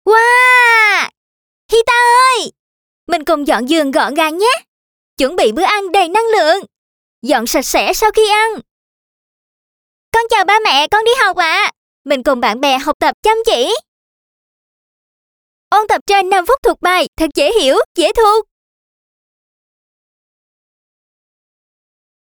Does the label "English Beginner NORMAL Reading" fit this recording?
No